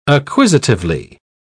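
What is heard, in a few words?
"acquisitively", British English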